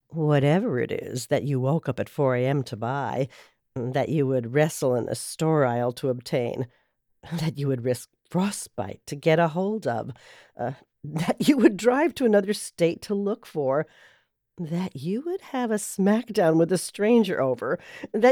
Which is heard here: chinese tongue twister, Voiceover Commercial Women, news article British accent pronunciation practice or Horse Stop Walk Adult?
Voiceover Commercial Women